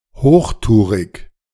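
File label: "hochtourig", German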